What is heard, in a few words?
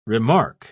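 "remarque", British English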